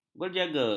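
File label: "berjaga", Malay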